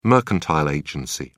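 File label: "mercantile agency", British English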